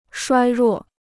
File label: "衰弱", Chinese